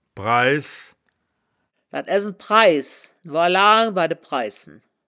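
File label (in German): "preiss", wittlicher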